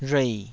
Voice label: "rhuei3", taiwanese

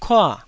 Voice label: "kua4", taiwanese syllables

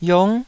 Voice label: "yiong9", Taiwanese

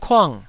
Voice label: "kuang4", taiwanese syllables

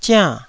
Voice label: "ziann4", Taiwanese

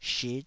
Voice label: "shit", Taiwanese

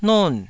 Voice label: "non4", taiwanese

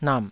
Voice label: "nap3", taiwanese

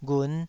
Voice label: "ghun5", Taiwanese syllable

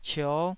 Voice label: "cio5", taiwanese

